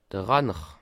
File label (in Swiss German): "ranner", alsace